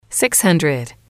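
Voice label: "six hundred", English, female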